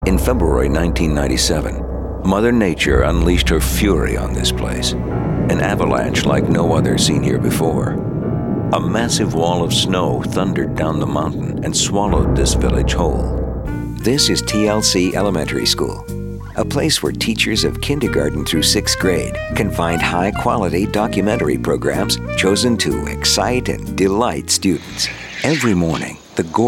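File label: narration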